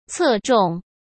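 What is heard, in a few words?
"侧重", Mandarin Chinese; hsk; chinese-flashcards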